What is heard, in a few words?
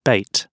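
"bait", British English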